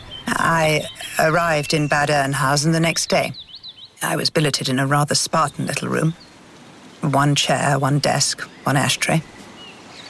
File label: Drama; 20s-30s; Deep tone; An assured, striking voice with a crisp finish